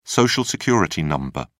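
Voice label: "social security number", British English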